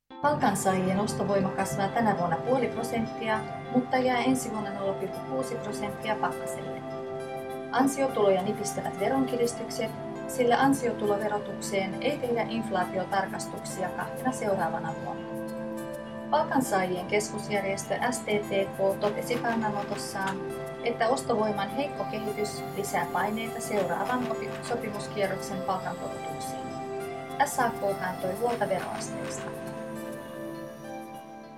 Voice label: news